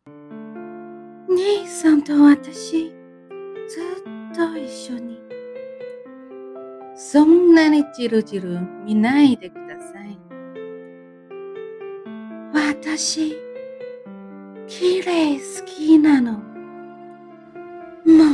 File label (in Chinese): 日语; 阴阳师